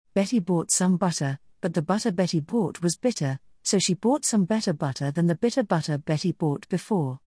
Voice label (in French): VIRELANGUE ANGLAIS; LES DÉFIS LV : DÉFI n°2, LE JEU DU VIRELANGUE